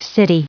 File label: city, en-us